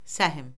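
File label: "səhm", Azerbaijani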